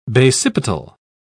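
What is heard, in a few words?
"basipetal", British English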